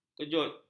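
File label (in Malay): "kejut", Malay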